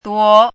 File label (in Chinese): "duo2", 汉字语音